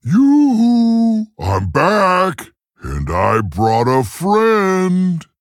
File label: Male Tauren; Guff; In Game Turn 17